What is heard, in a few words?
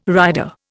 "rider", Indian